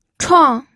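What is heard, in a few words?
"chuang", Mandarin Chinese, fourth tone; pinyin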